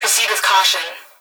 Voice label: Emergency Human Female Voice Police Dispatch Proceed With Caution; emergency effects